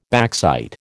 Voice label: "backsight", American

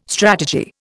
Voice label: "strategy", Indian

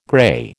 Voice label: "grey", American

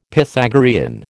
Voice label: "Pythagorean", American

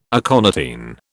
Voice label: "aconitine", British

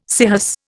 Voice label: "scirrhus", Indian

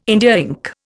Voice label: "India ink", Indian

